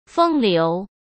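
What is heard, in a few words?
"风流", Mandarin Chinese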